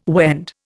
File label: "Wend", Indian